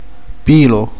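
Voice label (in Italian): "pelo", Sicilian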